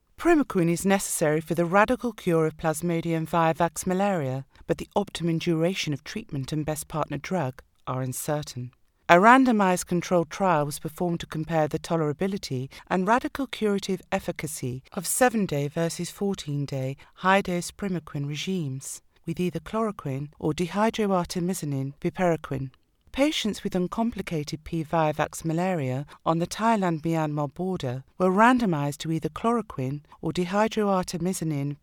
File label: Medical Narration Formal